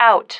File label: "out", female